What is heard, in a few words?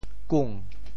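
"kung2", Teochew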